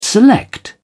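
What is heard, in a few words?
"select", British English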